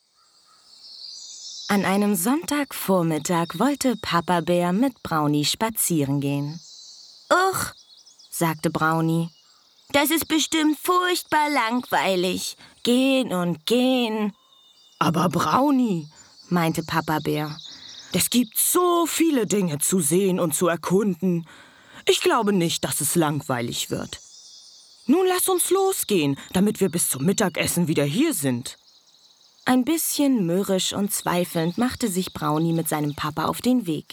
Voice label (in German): kinderhoerbuch; brauni